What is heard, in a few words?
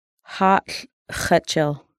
behavorial health, Lushootseed